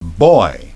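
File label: "boy", English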